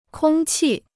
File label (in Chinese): "空气", Chinese